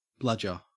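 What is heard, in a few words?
"bludger", English, Australian accent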